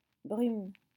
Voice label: "brume", French